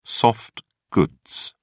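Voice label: "soft goods", British English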